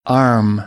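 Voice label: "arm", American English; AmericanBritish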